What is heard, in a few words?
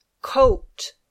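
"coat", English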